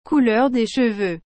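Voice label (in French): "couleur des cheveux", French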